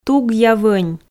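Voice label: "тугъя вонь", Komi, female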